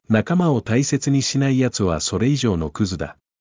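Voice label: anime, Japanese, Hilarious Anime Quotes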